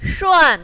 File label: "shuan4", mandarin, female